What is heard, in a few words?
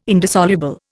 "indissoluble", Indian